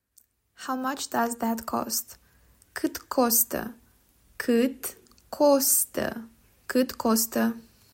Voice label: How much does that cost, romanian; talking romanian phrasebook

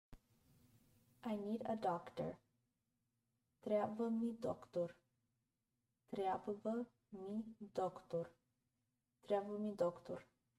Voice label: BGN I need a doctor, Bulgarian; the talking bulgarian phrasebook

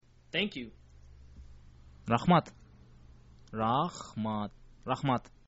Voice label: thank you, kyrgyz

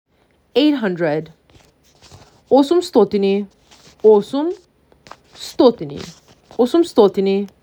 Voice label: MAC 6, macedonian phrasebook

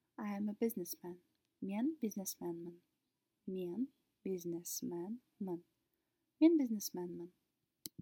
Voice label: I am a businessman, kazakh

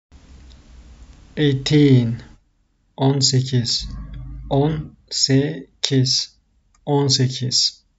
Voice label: "eighteen 18", Turkish; the talking turkish phrasebook

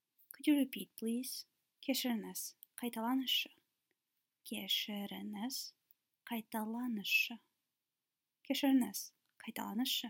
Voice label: Kazakh Could you repeat please

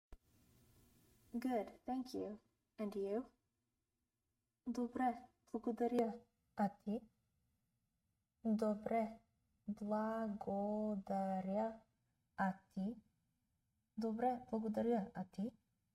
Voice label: Good thank you and you, bulgarian; the talking bulgarian phrasebook

